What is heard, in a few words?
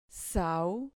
"sau", Spanish syllable